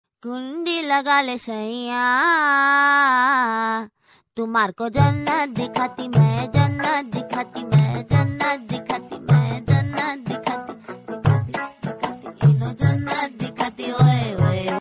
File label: funny comedy hindi